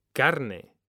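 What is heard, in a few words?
"carne", Spanish, male; mekanta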